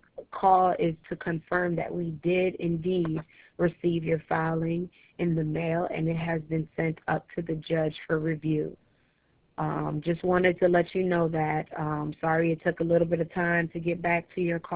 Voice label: voicemail